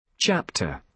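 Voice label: "chapter", British English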